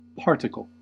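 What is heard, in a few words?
"particle", US English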